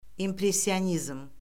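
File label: "impressionizm", Azerbaijani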